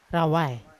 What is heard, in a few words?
"rawai" dig, Yawa